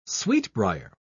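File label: "sweetbrier", British English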